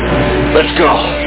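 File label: "Let's go", speech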